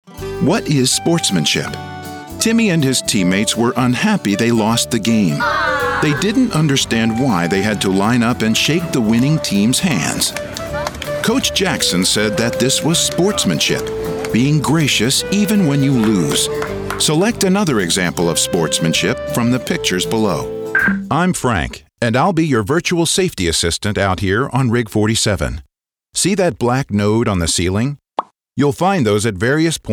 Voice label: E-learning; E-learning Demo